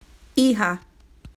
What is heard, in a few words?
"Daughter" "Hija"